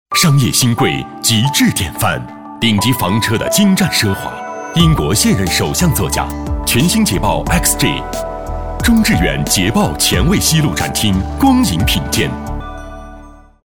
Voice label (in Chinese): 男 TVC; 中致远捷豹路虎